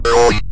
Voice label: "wrong", Synth Voice; Kenney's Sound Pack; Synth Voice 1